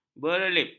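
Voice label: "berelips", Malay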